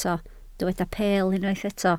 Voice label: "peel", Welsh

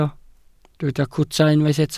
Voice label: "cwta", Welsh

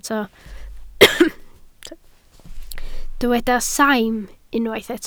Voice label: "saim", Welsh

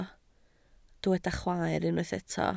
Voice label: "chwaer", Welsh

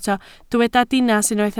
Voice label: "dinas", Welsh

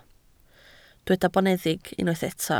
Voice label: "boneddig", Welsh

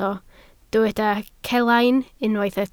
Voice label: "celain", Welsh

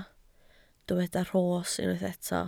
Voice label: "rhos", Welsh

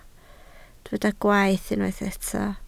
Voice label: "gwaeth", Welsh

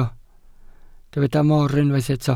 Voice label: "mor", Welsh

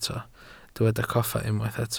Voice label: "coffa", Welsh

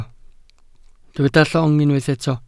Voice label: "llong", welsh

